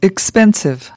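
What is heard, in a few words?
"expensive", English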